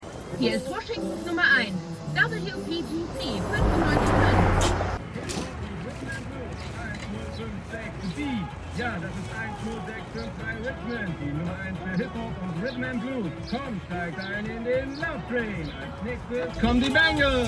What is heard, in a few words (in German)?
Radiosprecherin Radiosprecher Reviermitarbeiterin Empfangsfrau Frau Mann; The Wire